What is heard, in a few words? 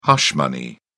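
"hush money", British English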